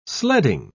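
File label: "sledding", British English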